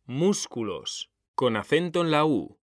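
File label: "músculos", Spanish, male; mekanta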